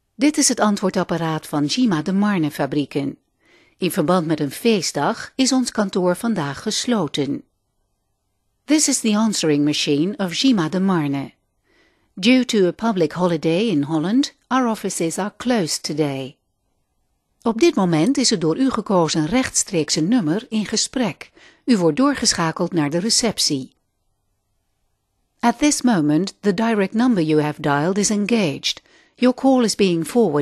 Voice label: telefonansagen nl engl, frauen aus aller welt

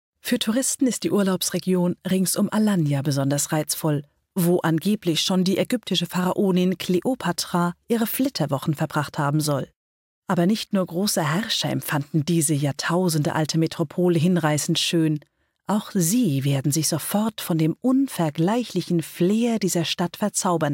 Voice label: doku voice over, frauen

